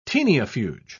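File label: "taeniafuge", British English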